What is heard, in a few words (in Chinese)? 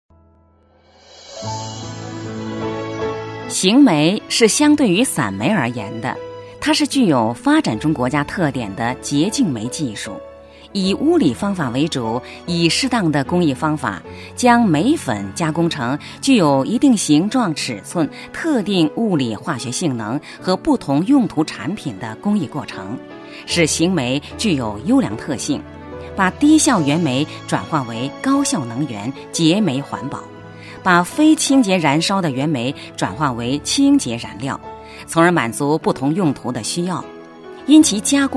型煤宣传, 国语女声配音; 成熟专题